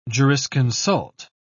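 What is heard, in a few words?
"jurisconsult", British English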